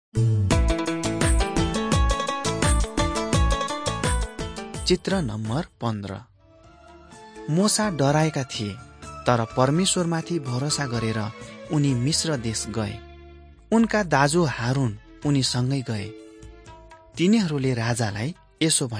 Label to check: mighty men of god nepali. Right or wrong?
Right